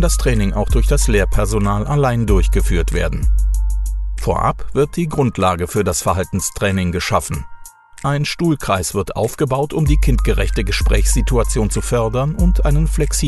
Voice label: E-Learning Praevention Polizei Sprecher; Koblenz

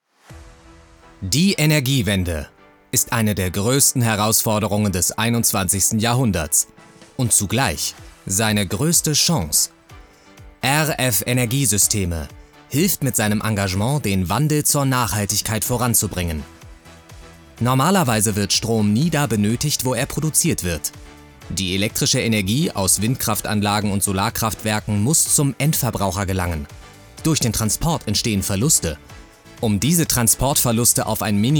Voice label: Imagefilm; LOFT VOICES

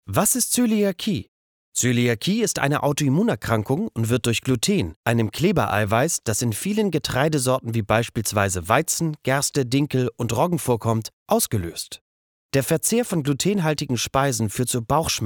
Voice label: eLearning; Zoeliakie

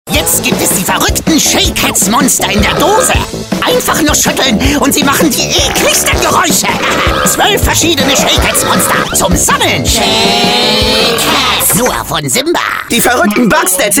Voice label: Werbung verstellte Stimme verrueckt quakig quetschig durchgeknallt; shakeheadz; bugsted; cupets

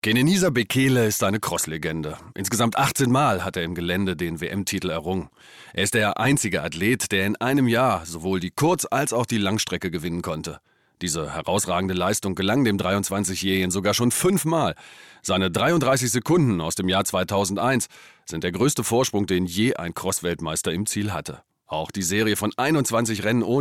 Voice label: kommentar

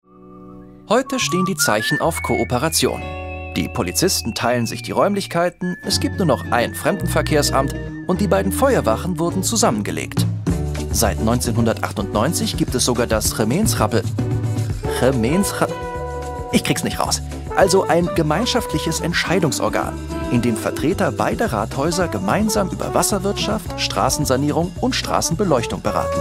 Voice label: Doku de Kommentar heiteres Ende; ARTE; Crazy Borders; LOFT VOICES

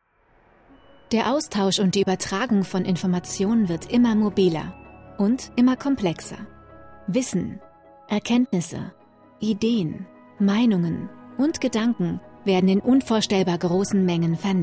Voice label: Imagefilm

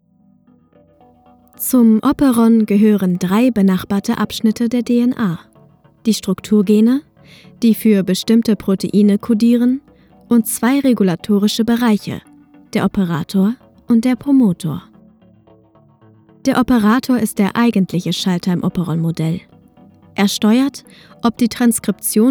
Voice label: Erklaerfilm Genetik; LOFT VOICES

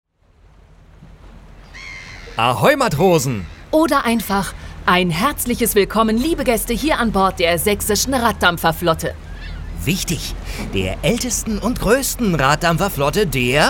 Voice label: Audioguide Schiff

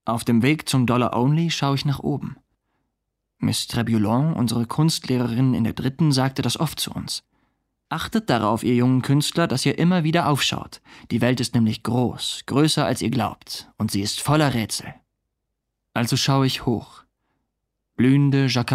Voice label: Hoerbuch; Wie man eine Raumkapsel verlaesst

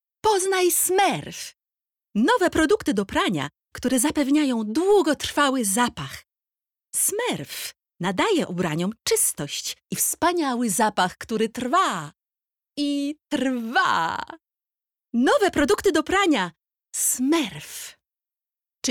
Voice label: POL Werbung Waschmittel

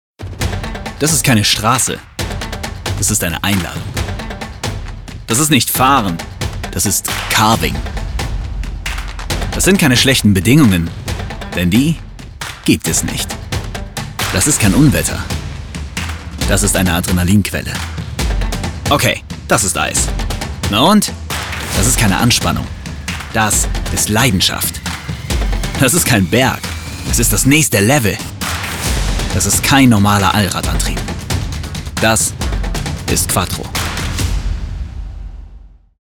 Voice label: Sprachdemos; Audi; LOFT VOICES

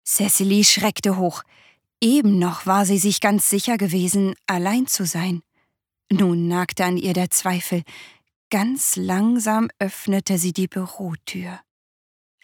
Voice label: Erzaehlung

